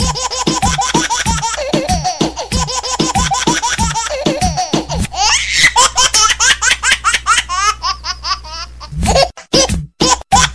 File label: Baby rap; toque de celular